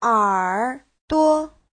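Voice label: "ěrduo", Mandarin Chinese; 耳朵; (danh từ) tai; Giáo trình chuẩn HSK3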